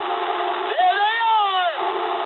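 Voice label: English enemy detected mood low; War Thunder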